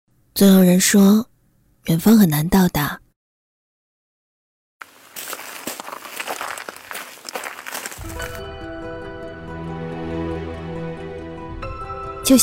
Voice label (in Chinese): 女 广告; 统一食品开小灶官方宣传